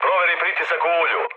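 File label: Serbian damaged oil mood med, speech; War Thunder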